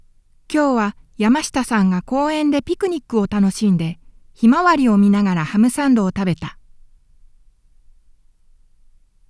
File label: dynamic, 22050 Hz, ダイナミックマイク Sony F-V620; オーディオ・インターフェイス Focusrite Scarlett 4i4 Third generation; XLRケーブルで接続; 16 bit 22050 Hz; 音質を考慮したDAISY製作